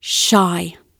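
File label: "shy" spoken, British English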